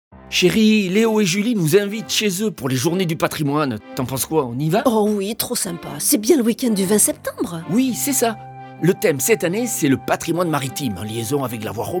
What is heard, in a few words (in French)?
spot radio cap d'agde; france bleu herault